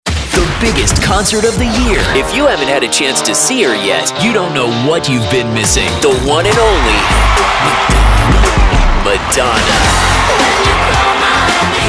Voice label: Classic Hits, voice-over; voice-over demos